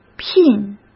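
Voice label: "聘", Mandarin Chinese; hsk; chinese-flashcards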